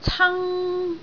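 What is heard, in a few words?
"can1", Cantonese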